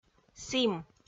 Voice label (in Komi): "сім", Komi